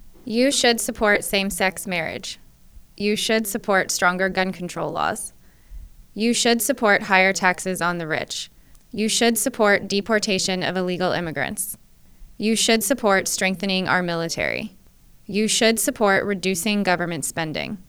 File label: female HIGH, voice pitch; voice pitch 2018